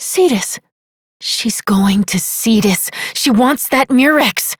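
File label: Voice, English; New War; Quarters; Operator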